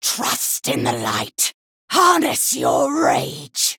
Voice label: Female Worgen Play

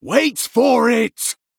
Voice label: Male Night Elf Play

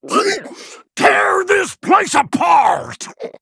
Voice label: Male Quillboar Play

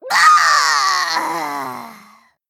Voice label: Female Gnome Death

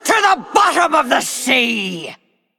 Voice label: Female Undead

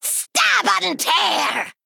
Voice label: Female Gnome Attack

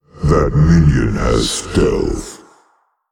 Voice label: Male Old God ERROR STEALTH

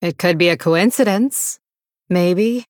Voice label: Female Blood Elf

